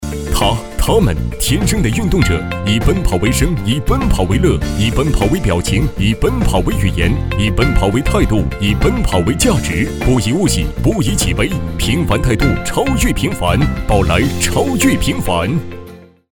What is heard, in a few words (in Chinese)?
广告 大气现代, 男; 宝莱